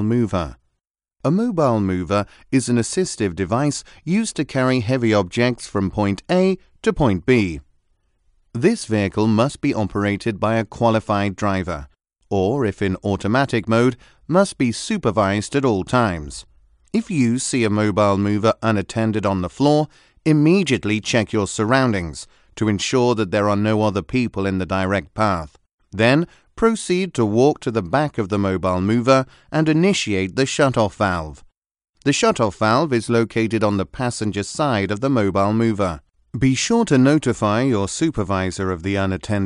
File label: English British training e-learning